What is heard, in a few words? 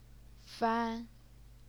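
"fan", first tone, Chinese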